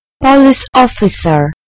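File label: "police officer", British English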